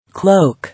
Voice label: "Cloak", English